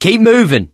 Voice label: in water, voice, mechanic, survivor